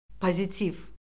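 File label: "pozitiv", Azerbaijani; Orfoqrafiya Lüğəti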